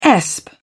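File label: "esp", British English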